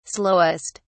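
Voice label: "slowest", British English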